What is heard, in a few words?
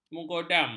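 "menggodam", Malay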